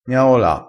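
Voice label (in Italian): "miaola", dialetto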